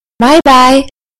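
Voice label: "bye bye", British English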